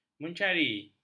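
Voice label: "mencari", Malay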